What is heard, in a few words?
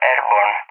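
"airborne", English, SPAIN; Messages; DCS; Allied Flight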